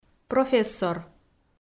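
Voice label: "профессор", Komi, female; kpv audio dict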